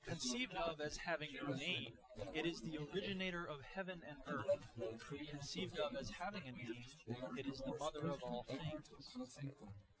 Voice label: male